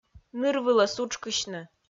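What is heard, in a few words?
"ныр вылӧ сутшкысьны", Komi, female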